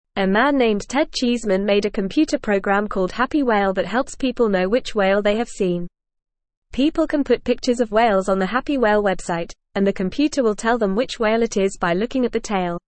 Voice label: English Lower Intermediate FAST Reading; English Newsroom; Computer Helpers Identifying Whales Birds and More